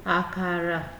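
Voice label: "akara", Nigerian Pidgin, female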